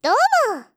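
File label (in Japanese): "どーも" Japanese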